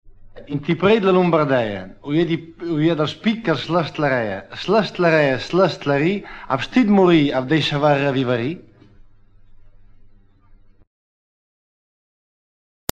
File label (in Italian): scioglilingua, romagna